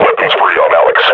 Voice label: announce attack, combine grunt; alyx

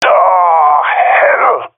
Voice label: scared, jungle male epic; Source Model Packs; Counter Strike Model Pack; CS2

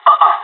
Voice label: negative, pirate; Counter Strike Model Pack; CS:GO

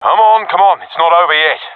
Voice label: round losing, professional; Counter Strike Model Pack; CS:GO

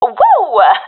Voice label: cheer, professional fem; Counter Strike Model Pack; CS:GO

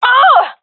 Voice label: blinded, gendarmerie fem epic; vo; agents

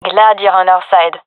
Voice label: compliment, gendarmerie fem epic; vo; agents

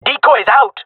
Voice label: throwing decoy, male; player vo; Counter Strike Model Pack; CS:GO

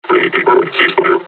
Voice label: taunt, combine charger; Half Life Alyx

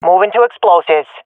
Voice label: omw to loose bomb, swat fem; vo; Counter Strike Model Pack; CS:GO

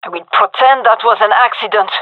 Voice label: taking fire friendly, fem; vo

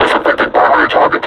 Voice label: announce attack, combine suppressor

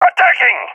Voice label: balkan attacking; vo; balkan epic

